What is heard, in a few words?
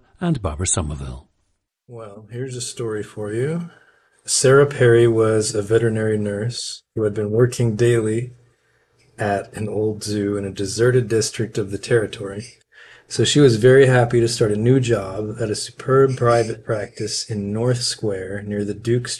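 minnesota, International Dialects of English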